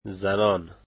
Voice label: "women", Dari

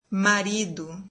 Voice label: brazilian husband; gender

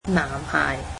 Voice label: "boy", Cantonese

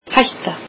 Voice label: icelandic danger

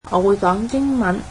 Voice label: I speak English, cantonese

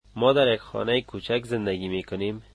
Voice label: we live in a small house, Dari

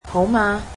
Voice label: "hi", Cantonese; adjectives

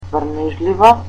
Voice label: "rainy", Macedonian

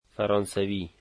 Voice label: "French", dari

